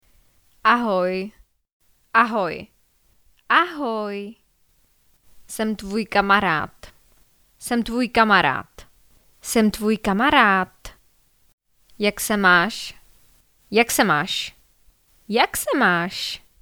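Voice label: Czech woman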